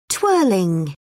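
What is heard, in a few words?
"twirling", English, British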